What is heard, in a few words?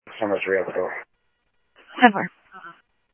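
Phoenix police department, scanner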